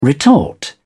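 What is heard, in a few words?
"retort", British English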